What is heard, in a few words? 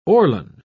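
"orlon", British English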